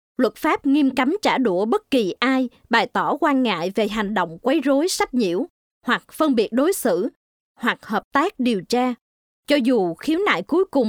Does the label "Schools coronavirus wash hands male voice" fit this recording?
No